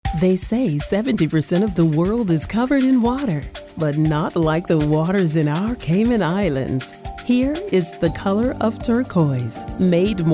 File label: Romantic smooth